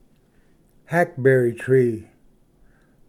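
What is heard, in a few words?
"hackberry tree", English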